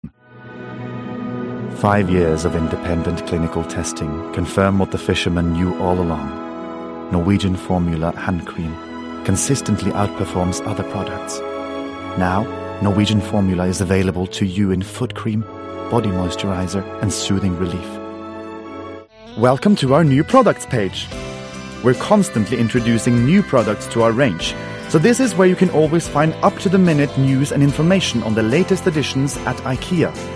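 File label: English Commercial with Accent; Norwegian, Scandinavian, Male, 20s-30s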